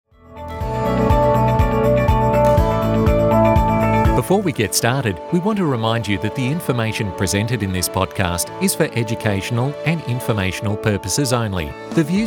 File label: english australian podcasts, australian voice actors